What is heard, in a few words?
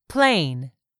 "PLAIN", English; 3000 words